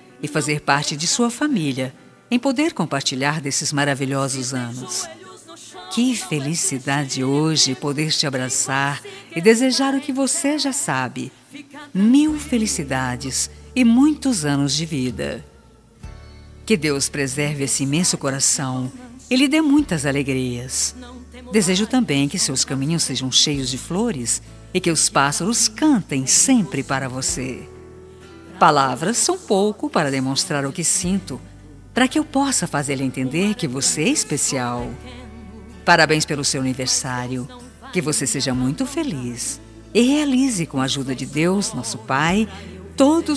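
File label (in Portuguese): aniversario evangelico sogra feminino, telemensagem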